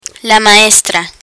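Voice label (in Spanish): "maestra", Spanish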